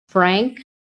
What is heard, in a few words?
"FRANK", american